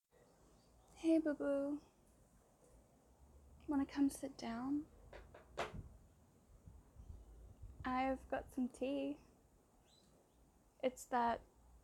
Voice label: Aussie Outdoor Ambiance Complete with Noisy Miner; F4A; Tea and Conversation; GFE; Apologies; Making a Comeback